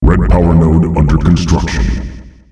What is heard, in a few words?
Red powernode under construction, announcer male; Unreal Tournament; ut2004